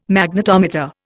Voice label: "Magnetometer", Indian